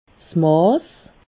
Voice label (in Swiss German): "maas", Alsace